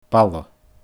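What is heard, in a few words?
"balla", Scottish Gaelic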